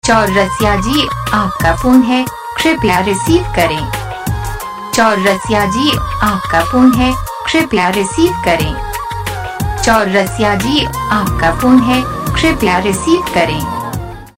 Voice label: ji aapka phone hai kripaya receive karen saying